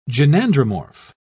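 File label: "gynandromorph", British English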